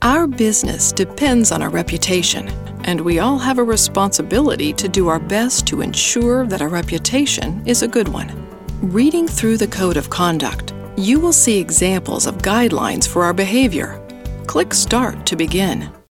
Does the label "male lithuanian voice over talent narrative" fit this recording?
No